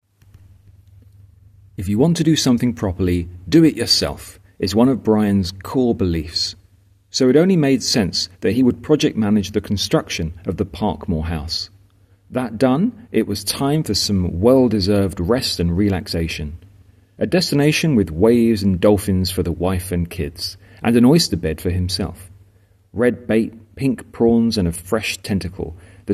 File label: voiceover